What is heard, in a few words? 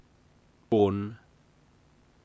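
"oon", male; Middle English; oon(e) o:n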